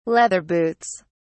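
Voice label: "leather boots", English, British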